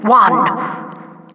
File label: announcer begins 1 sec; tf2